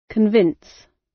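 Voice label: "convince", British English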